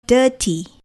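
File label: "dirty", English word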